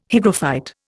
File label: "hygrophyte", Indian